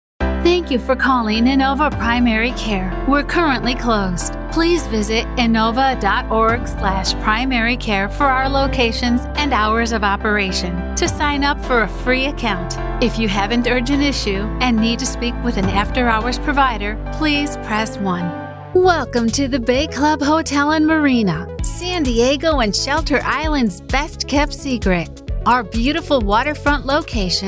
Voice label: On Hold VO

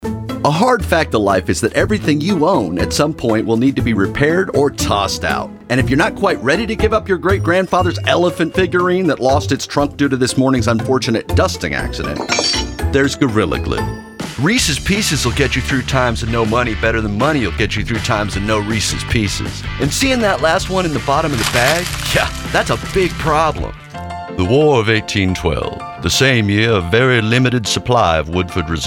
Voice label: Commercial

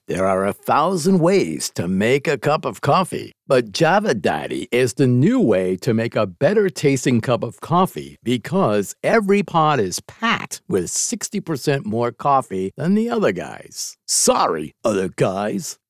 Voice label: COFFEE INTERNET AD; JAVA

